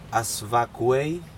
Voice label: break something circular, Aneityum; asvakuei